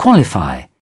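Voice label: "qualify", British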